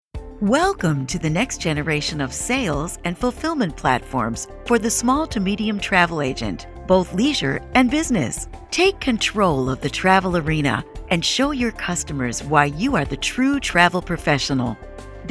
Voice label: E Learning

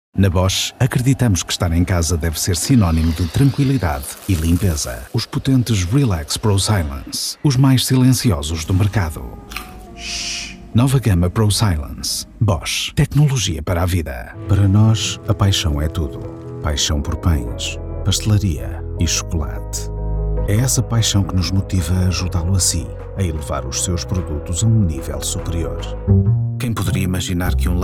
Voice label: Commercial Portuguese, European Portuguese

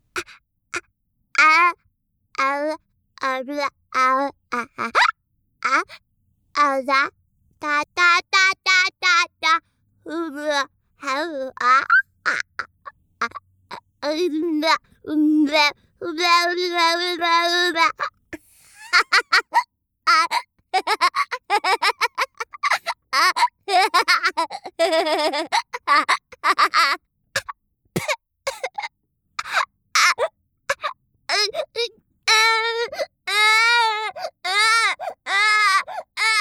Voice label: baby

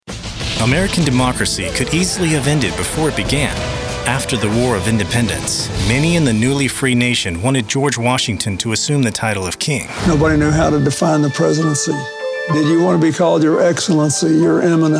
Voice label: TV Narration

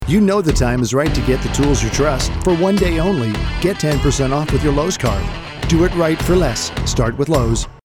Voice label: Promo

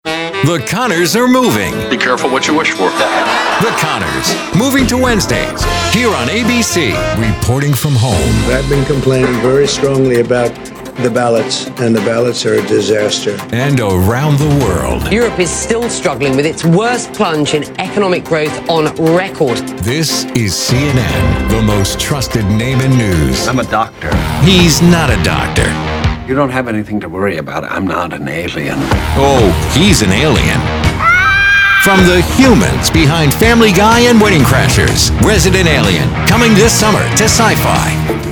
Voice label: Promo

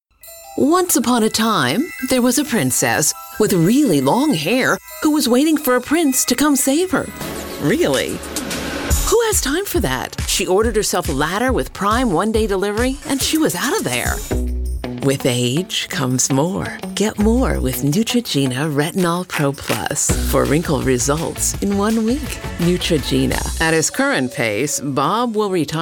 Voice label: Commercial

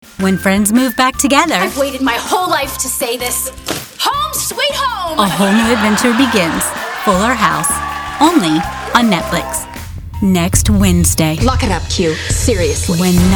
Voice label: Promo

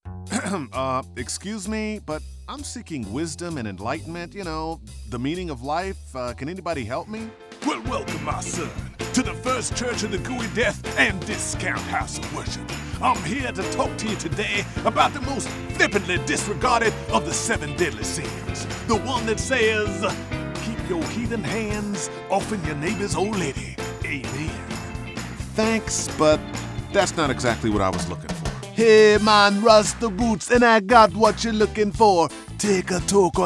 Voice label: Animation

